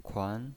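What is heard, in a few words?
"kuan", pinyin, Mandarin Chinese, second tone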